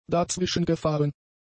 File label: "dazwischengefahren", German; adjectives